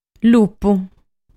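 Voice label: "Lupu", Sardinian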